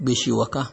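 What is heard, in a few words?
"gbeshiwaka", Nigerian Pidgin, male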